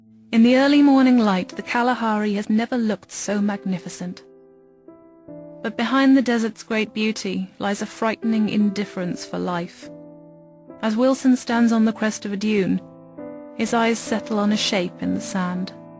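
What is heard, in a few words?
documentary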